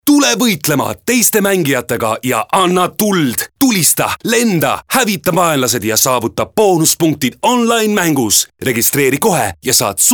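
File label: Estonian male Rage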